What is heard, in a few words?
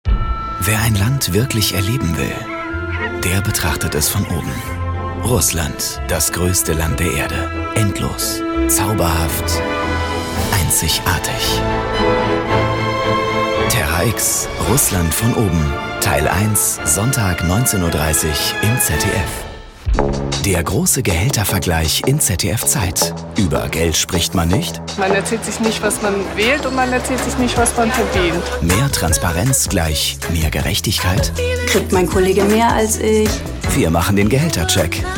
Stationvoice Trailer; ZDF